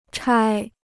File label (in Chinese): "拆", Chinese